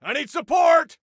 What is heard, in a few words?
Need Support, Soldier Male